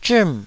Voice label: "zhim4", taiwanese